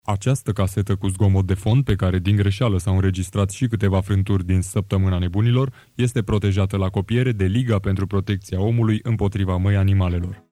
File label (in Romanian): Avertisment comercial